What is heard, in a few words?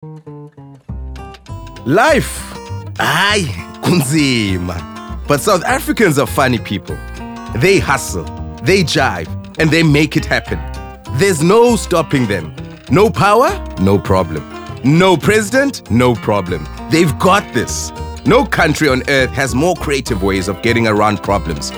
Converational personality